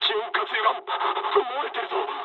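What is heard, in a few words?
damaged oil mood med, speech japanese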